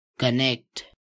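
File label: "connect", English; properties of objects new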